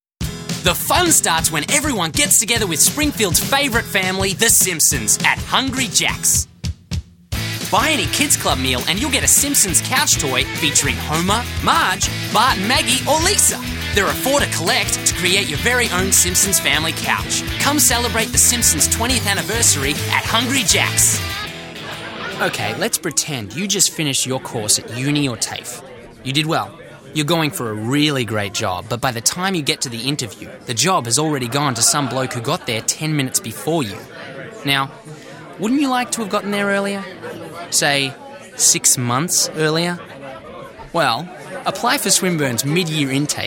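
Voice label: languages Australian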